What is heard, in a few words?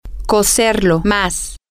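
female, spanish; to Cook It More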